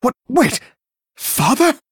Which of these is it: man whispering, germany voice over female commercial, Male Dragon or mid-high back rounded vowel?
Male Dragon